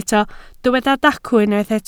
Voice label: "dacw", Welsh